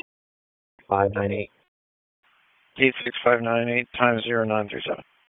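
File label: Police Southwest District Disp, radio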